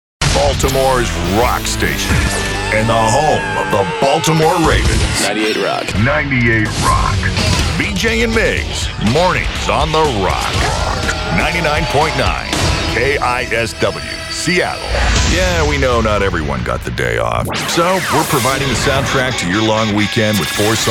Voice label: Radio Imaging